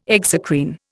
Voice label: "exocrine", Indian